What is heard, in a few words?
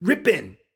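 "Ripping", voice over; wild water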